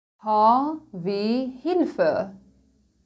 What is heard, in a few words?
german alphabet